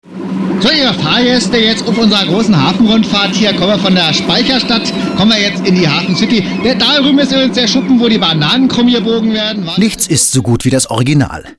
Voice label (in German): berlinerisch